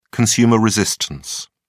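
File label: "consumer resistance", British English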